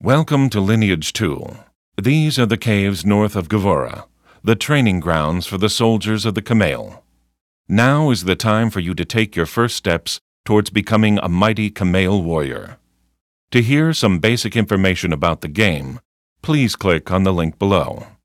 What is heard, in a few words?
tutorial voice; lineage2